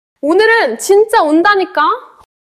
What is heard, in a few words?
neutral, monologue